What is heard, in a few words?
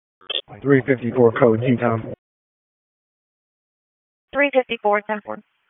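Sheriff's Office Dispatch 1, p25; wake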